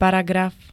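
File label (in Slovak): "paragraf", Slovak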